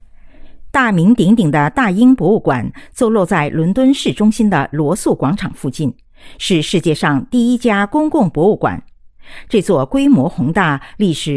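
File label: Chinese, general; GoLocalise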